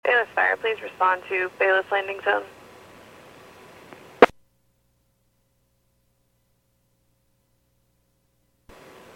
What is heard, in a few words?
PAGING